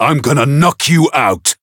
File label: chant